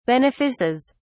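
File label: "benefices", English, British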